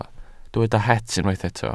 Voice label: "het", Welsh